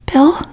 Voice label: name, teen girl; bill